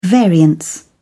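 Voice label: "variance", British English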